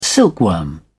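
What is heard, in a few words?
"silkworm", British English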